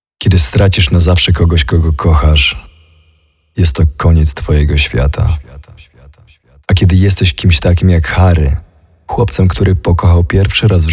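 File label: Voices VO Polish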